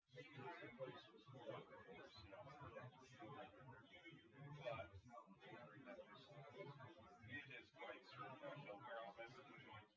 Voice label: male; SiSEC08; SiSEC underdetermined